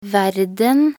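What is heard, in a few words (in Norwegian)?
"verden", Norwegian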